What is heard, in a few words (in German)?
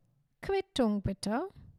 Quittung bitte, German